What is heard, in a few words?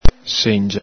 "sengia", Veneto